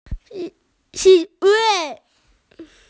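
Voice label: "October", Mandarin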